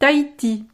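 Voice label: "tahiti", French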